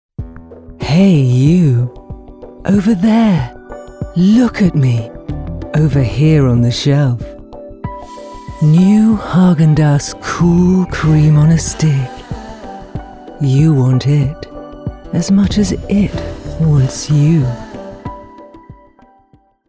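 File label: advert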